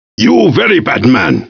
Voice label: bad man